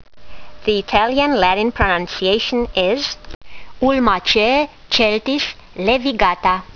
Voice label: latin; Celtis laevigata var. laevigata